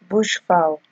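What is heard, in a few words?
"bush fowl", Nigerian Pidgin, female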